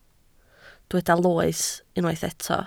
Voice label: "loes", Welsh

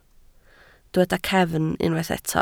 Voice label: "cefn", Welsh

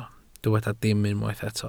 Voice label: "dim", Welsh

